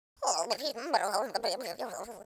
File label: pray, Russki